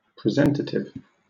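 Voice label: "presentative", British English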